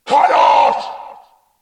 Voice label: orc